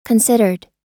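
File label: "considered", US English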